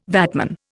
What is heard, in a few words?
"VATman", Indian